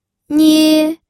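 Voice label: "nie", Mandarin Chinese, first tone; pinyin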